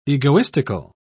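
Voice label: "egoistical", British English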